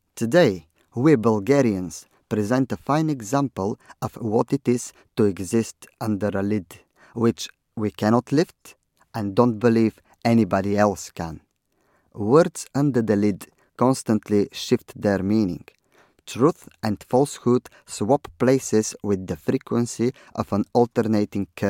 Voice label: Corporate